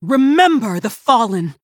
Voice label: Female Human Attack